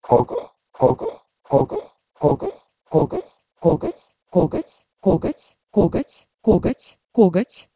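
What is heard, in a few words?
Proto-Indo-European kh3go to Russian kogotj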